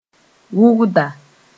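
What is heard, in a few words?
"wʋgda", Mooré; weaver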